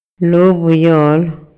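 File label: Maya, male; INALI; MayaYuc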